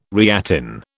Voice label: "reattain", British